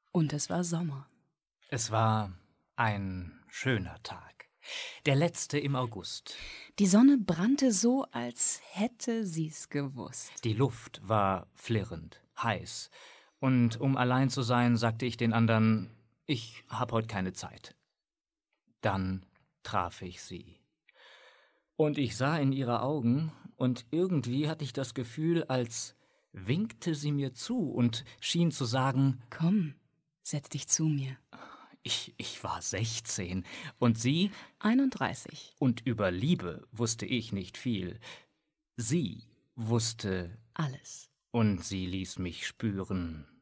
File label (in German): Szenische Lesung